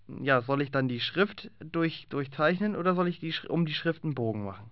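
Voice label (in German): "durchzeichnen", German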